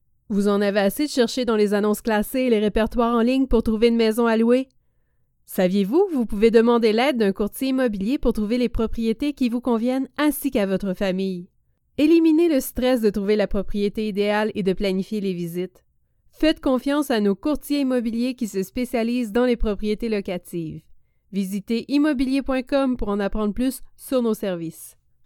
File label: French Canadian commercial